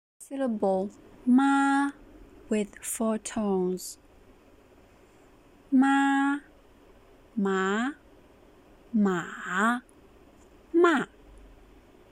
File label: ma with 4 tones, Mandarin